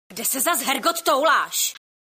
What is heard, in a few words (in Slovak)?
sms hlásky cz